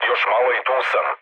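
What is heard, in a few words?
Serbian near waypoint mood low; War Thunder; speech srb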